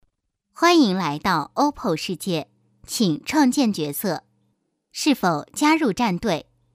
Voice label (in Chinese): 女 动画 模仿 机器人; SIRI感觉